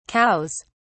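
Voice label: "cows", British English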